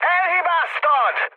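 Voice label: Hungarian bomb failure mood high, speech; War Thunder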